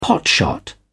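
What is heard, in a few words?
"potshot", British English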